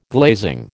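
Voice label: "glazing", American English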